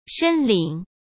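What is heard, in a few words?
"申领", Mandarin Chinese; chinese flashcards; hsk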